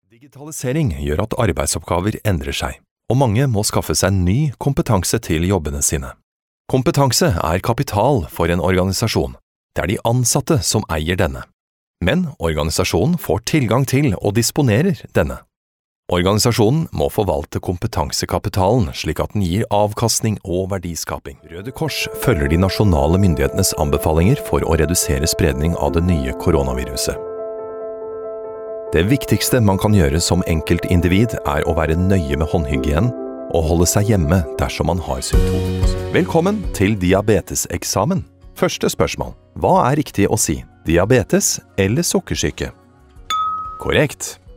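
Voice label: Norwegian forklaringsfilm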